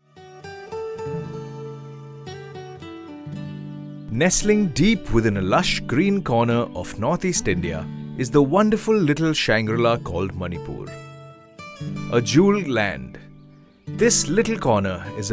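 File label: documentary english; manipur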